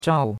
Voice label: "zhao4", chinois; Cours-de-Chinois